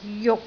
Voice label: "juk6", Canton

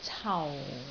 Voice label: "cau4", Canton

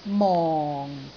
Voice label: "mong4", Canton

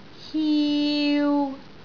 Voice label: "hiu3", Canton

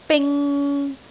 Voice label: "bing3", Canton

